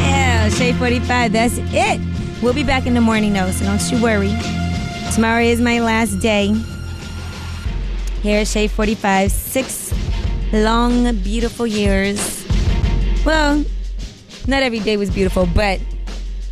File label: announcement